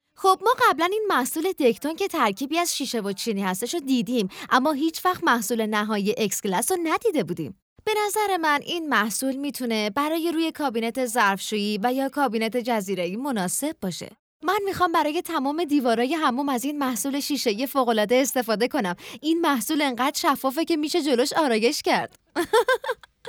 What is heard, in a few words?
commercial